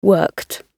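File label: "worked", British English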